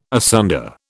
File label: "asunder", British